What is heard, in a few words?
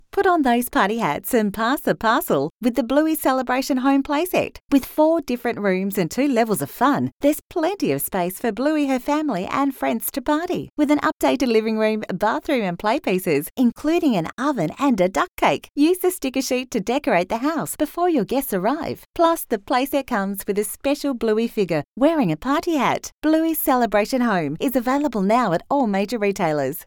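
middle age woman, Australian female; Bluey Celabration Home Playset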